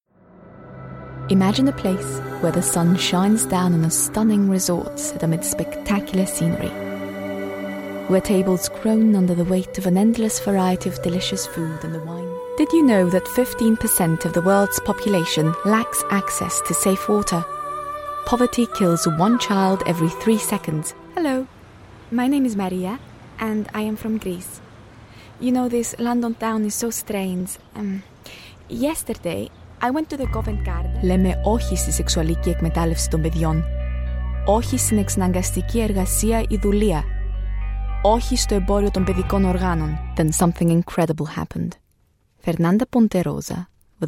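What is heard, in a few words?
Greek, female, voice reel; voice over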